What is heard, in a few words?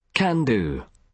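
"can do", British English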